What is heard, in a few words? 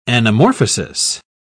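"anamorphosis", British English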